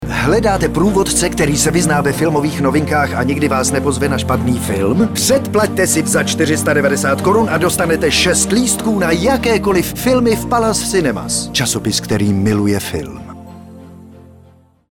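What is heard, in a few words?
Male Czech commercial mix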